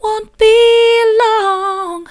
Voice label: wont be long soft; vocal